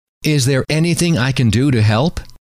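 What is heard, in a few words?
is there anything I can do to help, male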